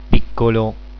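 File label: "piccolo", Italian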